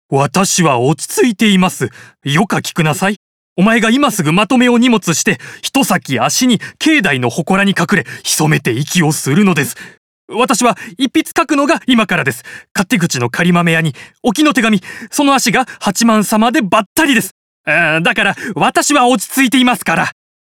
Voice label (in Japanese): 支離滅裂20代